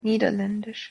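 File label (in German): "niederlandisch", German; pronunciation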